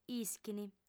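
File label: "ischint", Sardinian, female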